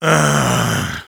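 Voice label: Sniper jeers, German; Gaaahhhh!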